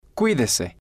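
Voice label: "cuidese", Spanish, male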